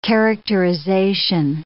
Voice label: "characterization", English